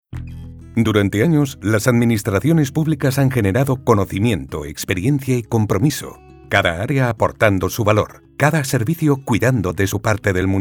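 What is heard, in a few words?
spanish political ads, Male; professional Spanish voice actor since 1990; Dubbing actor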